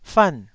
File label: "fan4", Taiwanese